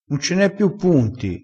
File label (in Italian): "che di", crespinese